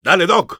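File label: Engineer activate charge, Spanish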